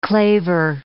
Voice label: "claver", English

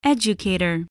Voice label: "educator", English

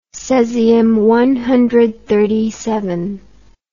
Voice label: "cesium clock", English

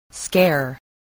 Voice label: "scare", english spelling

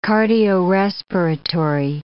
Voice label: "cardiorespiratory", English

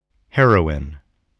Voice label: "heroin", English, spelling

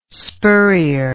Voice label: "spurrier", English

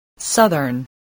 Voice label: "southern", English voice

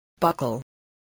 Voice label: "buckle", spelling

